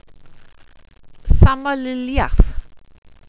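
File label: "samaliliath", welsh pronunciations; Wales/welsh names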